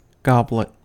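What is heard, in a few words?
"goblet", US English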